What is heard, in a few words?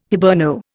"Hiberno", Indian